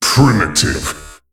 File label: Male Mech Attack